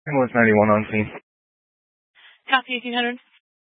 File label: Fire Dispatch, Scanner audio recording